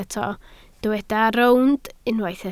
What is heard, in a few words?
"rownd", Welsh